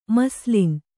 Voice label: "ಮಸ್ಲಿನ್", Kannada